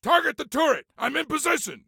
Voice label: Target Turret, Soldier Male; Soldier Male 03